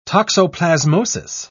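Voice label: "toxoplasmosis", British English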